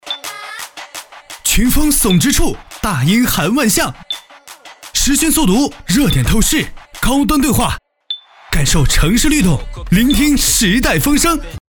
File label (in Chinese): 广告